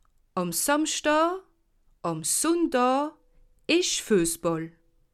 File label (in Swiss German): "fuessball", alsace